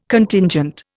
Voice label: "Contingent", Indian